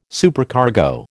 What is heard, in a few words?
"Supercargo", American